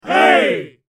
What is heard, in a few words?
"hey" sound effect